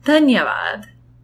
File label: thanks, gujarati